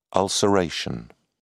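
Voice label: "ulceration", British English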